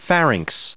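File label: "pharynx", us English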